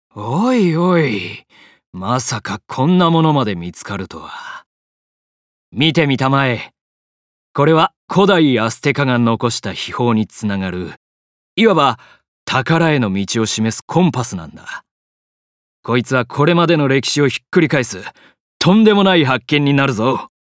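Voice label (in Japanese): 考古学者30代, 大阪弁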